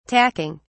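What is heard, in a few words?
"tacking", British English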